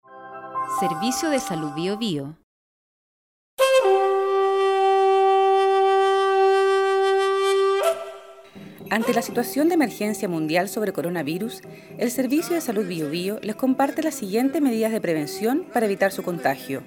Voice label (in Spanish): Audio recomendaciones COVID-19 en mapudungun